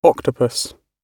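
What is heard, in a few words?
"octopus", British English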